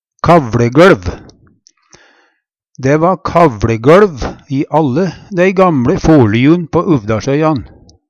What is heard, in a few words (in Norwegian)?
kavLegoLv, Numedalsmål; Substantiv inkjekjønn